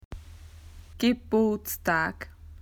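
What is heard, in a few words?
"birthday", Australian English; PictureDictionary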